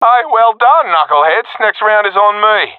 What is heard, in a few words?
round won, professional epic; vo; Counter Strike Model Pack; CS:GO